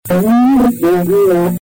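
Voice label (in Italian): "riunir", Italian; riunir c’è via!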